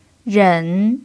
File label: "ren3", chinese pinyin